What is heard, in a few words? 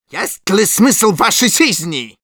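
Medic jeers, Russian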